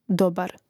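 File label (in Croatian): "dobar", Hrvatski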